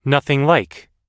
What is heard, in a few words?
nothing like, US English